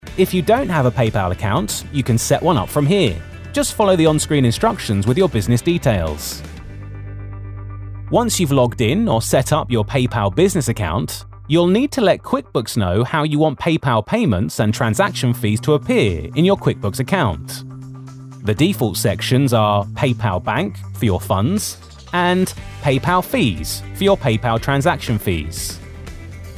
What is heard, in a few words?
How to use explainer video